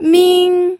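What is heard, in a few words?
"ming", first tone, pinyin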